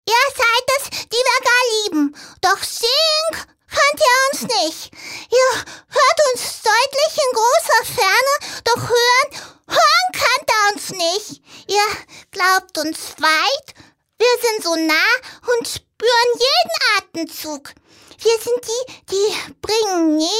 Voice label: Comic, frauen; sprecher

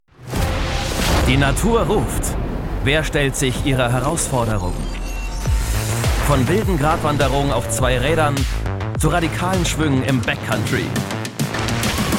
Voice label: Trailer, maenner; RB Natural Selection26

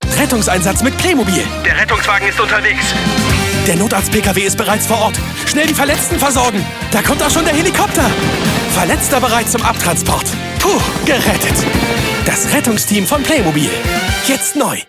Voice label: Spots OFF, maenner; Playmobil; Rettungsteam

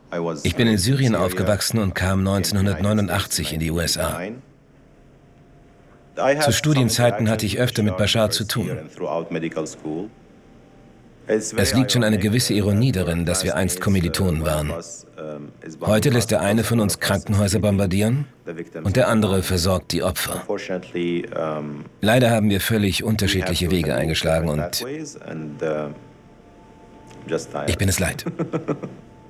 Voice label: Voice Over, maenner; House of Assad

